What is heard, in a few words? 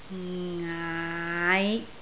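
"ngaai6", Cantonese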